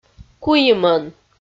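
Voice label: "куимӧн", Komi, female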